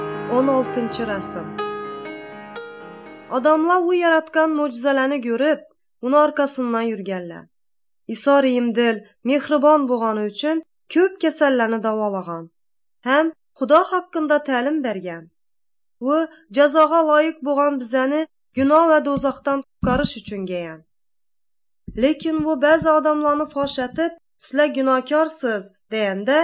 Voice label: uzbek northern kipchak; good news